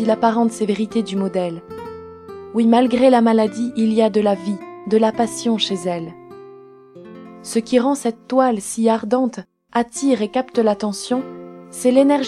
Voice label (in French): narrateur audio